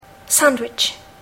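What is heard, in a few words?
"sandwich", English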